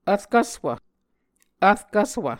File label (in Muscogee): "vrkvswv", muscogee